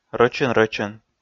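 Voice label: "рӧчӧн-рӧчӧн", Komi, male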